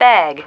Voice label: "bag", female

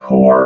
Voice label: "core", robot vox

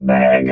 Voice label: "bag", robot vox

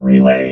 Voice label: "relay", robot

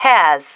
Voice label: "has", female

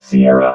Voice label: "sierra", robot

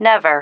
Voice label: "never", female

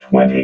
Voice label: "twenty", robot

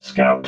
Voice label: "scout", robot

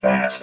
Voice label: "fast", robot vox

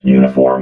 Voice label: "uniform", robot

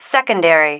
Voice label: "secondary", female

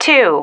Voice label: "two", female

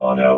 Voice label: "auto", robot vox

